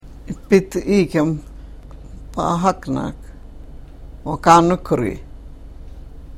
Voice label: karuk